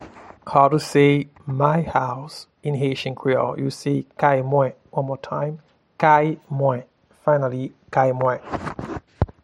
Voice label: My house in Haitian Creole, Kay mwen

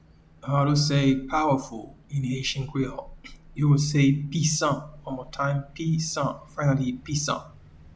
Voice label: Powerful in Haitian Creole Pisan

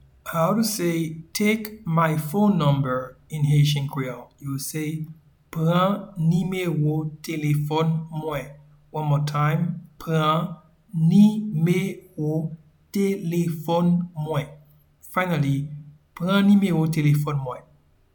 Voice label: "Pran nimewo telefòn mwen" in Haitian Creole; Take my phone number

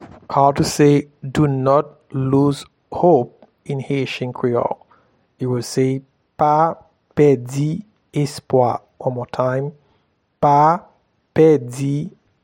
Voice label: Do not lose hope in Haitian Creole, Pa pedi espwa

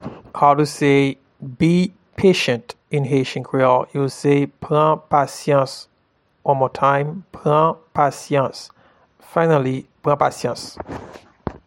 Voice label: Be patient in Haitian Creole, Pran pasyans

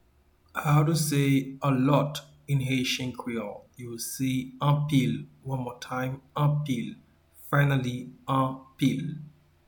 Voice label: "Anpil", Haitian Creole; “A lot” is translated as “Anpil” in Haitian Creole

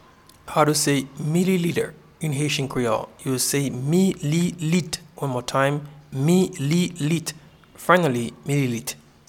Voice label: Mililiter in Haitian Creole Mililit

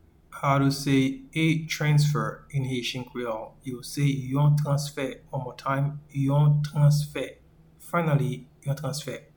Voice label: a Transfer in Haitian Creole, Yon transfe

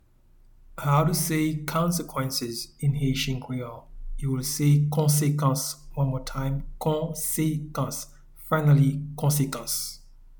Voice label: Consequences in Haitian Creole Konsekans

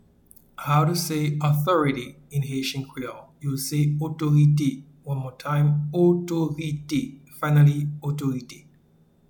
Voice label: Authority in Haitian Creole Otorite

